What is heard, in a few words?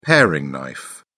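"paring knife", British English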